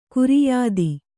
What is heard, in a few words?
"ಖೈರಿಯತ್ತು", kannada